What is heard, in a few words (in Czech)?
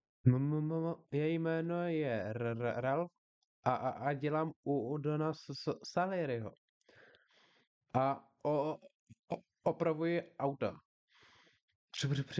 styl, profesionalni muzsky hlas